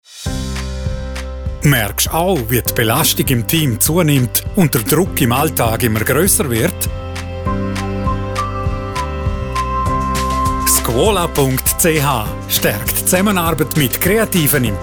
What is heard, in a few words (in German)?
Radiospot